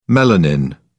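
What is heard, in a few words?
"melanin", British English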